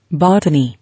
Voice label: "Botany", English